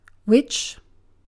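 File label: "which", British English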